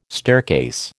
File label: "staircase", American